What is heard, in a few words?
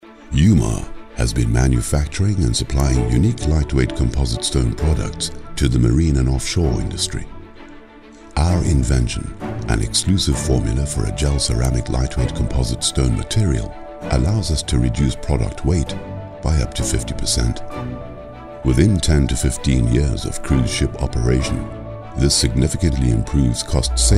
CORPORATE shipping; Eumar